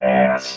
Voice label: "ass", robot vox